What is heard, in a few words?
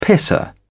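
"pisser", British English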